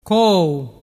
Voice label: "cou", dialettu

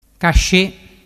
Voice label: "casce", dialettu

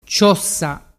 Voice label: "ciossa", dialettu

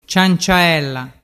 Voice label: "cianciaella", dialettu

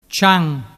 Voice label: "cian", dialettu

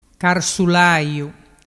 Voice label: "carsulaiu", dialettu

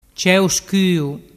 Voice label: "ceuscuu", dialettu

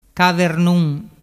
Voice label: "cavernun", dialettu

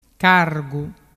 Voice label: "cargu", dialettu

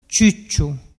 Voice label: "ciucciu", dialettu

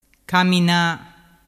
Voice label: "camina", dialettu

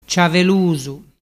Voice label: "ciavelusu", dialettu

